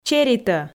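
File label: "черитӧ", Komi, female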